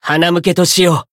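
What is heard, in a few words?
finish, Japanese; curio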